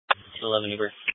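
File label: Police Patrol (Dispatch)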